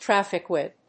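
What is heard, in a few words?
"traffic with", English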